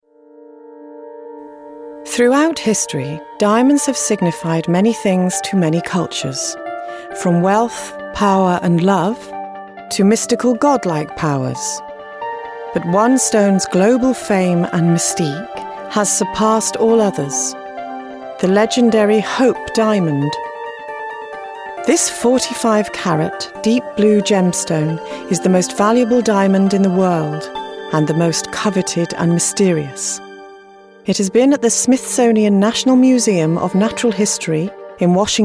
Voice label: documentary; the hope diamond demo